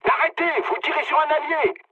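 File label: player friendly fire, mood low, French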